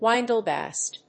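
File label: "wind blast", English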